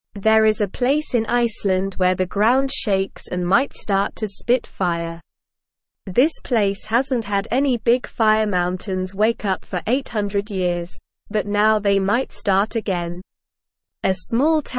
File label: English Lower Intermediate SLOW Reading; Fire Danger in Iceland People Forced to Leave; English Newsroom